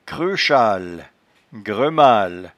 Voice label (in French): "creuchale", patois jura